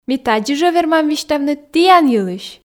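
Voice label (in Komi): ми тадзи жӧ вермам висьтавны тіян йылысь, Komi, female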